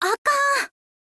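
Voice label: "akan", Japanese, girl; voice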